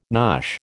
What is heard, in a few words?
"nosh", American